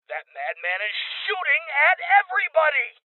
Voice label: Voices Male